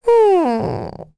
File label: Think, kr; Rehartna; kingsraid; voices heroes